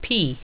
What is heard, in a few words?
"p", English